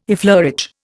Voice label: "effleurage", Indian